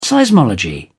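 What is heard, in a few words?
"seismology", British English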